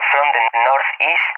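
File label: "from the NE", English, SPAIN; DCS; Allied Flight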